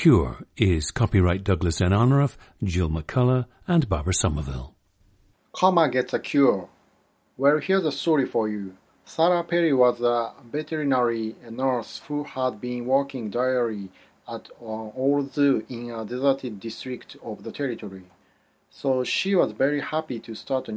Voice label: japan